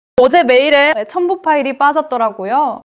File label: neutral, monologue